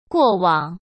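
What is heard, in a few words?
"过往", Mandarin Chinese; chinese-flashcards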